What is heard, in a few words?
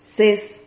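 "ces", Spanish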